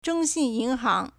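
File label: "zhong xin yin hang", Chinese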